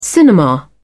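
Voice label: "cinema", British English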